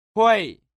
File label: "hui", tiếng trung; che bien